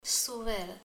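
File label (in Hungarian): "varr", Hungarian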